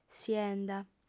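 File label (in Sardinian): "sienda", female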